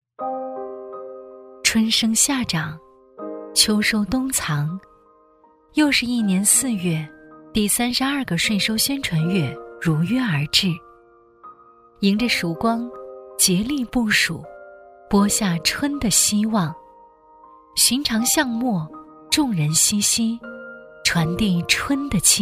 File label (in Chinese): 女, 税务局宣传, 税收, 温婉 走心; 成熟知性